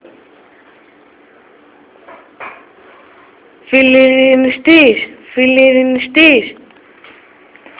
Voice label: pacifist GR, voice greece